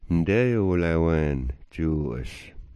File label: "dzuu'as", smalgyax